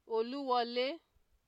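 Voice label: "oluwole", Nigerian Pidgin, female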